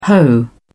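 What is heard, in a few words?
"hoe", British English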